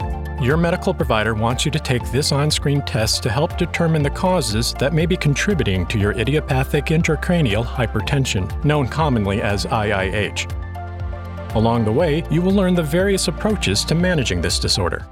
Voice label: eLearning medical knowledgeable approachable